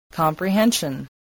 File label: "comprehension", english